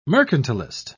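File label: "mercantilist", British English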